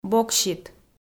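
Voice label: "боксит", Komi-Zyrian, female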